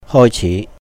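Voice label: "hòi chí", begin, start, Cantonese; 開始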